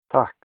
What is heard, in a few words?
thanks, icelandic